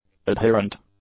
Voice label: "adherent", English; adjectives